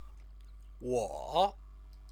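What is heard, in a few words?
"wo", Chinese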